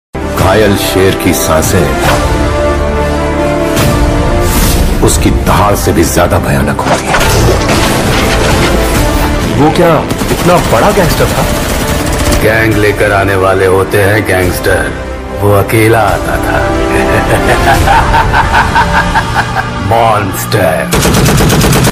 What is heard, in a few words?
Dialogue Ringtone; KGF